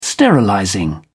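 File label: "sterilizing", British English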